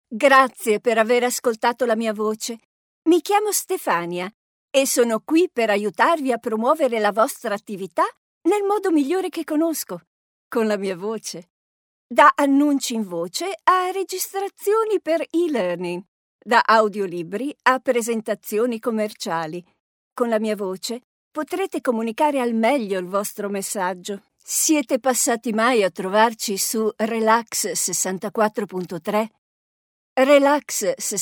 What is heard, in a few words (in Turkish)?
Italyanca Kadin; seslendirme